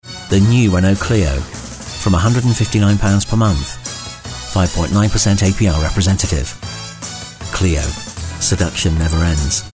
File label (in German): Eng UK Neutral; Fremdtake